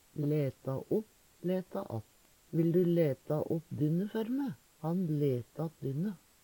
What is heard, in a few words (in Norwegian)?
"læta att", Numedalsmål; læta opp, læta att